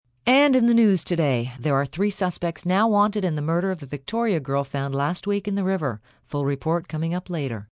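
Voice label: News Murder F, Broadcast, news, female news item on Victoria girl murder.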